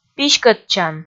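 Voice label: "писькӧдчан", Komi, female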